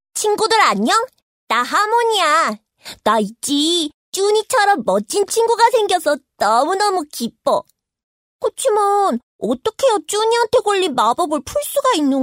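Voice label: Korean female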